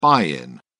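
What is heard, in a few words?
"buy in", English, British English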